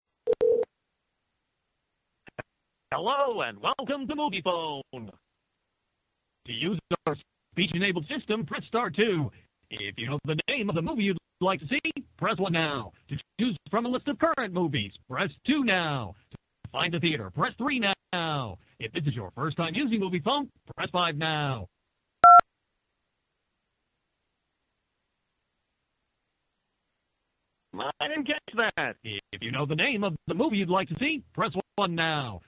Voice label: g729 8pct packet loss; VobalFEC voip